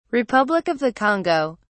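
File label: "republic of the congo", British English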